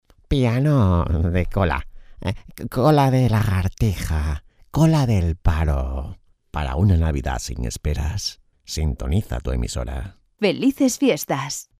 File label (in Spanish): felicitacion navidad locutores voiceovers; cola